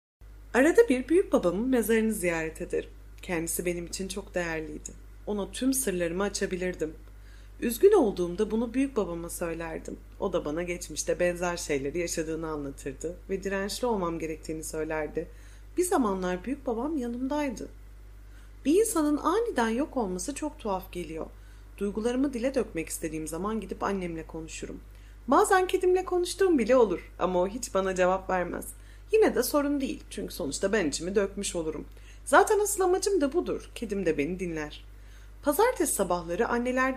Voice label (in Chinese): 土耳其女声